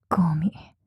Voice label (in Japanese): ゴミ・強く・極近・左, ASMR; マゾオスのあなたに敗北射精の快楽を叩き込む音声